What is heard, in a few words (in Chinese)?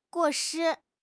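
"过失", Chinese; HSK6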